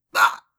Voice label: Hurt Med; X Lines; X line pickups